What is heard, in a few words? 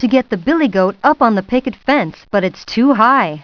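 too high story narration; PuttTime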